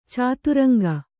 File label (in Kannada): "ಚದುರಂಗ", Kannada